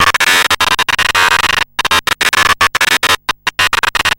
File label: alien talk